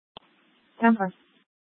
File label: Police Dispatch; Paradise Valley Police; Simulcast H- Scottsdale